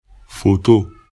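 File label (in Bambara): "foto", Bambara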